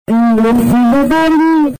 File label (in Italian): rimbrotti; Rimbrotti? Dai vermi!